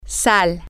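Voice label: salt, Spanish, female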